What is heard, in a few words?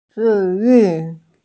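"zhiyu", Mandarin Chinese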